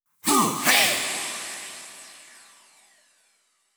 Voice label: 144 BPM Vox; Vengeance - Essential Clubsounds Vol.5; Flight Kit Root Amin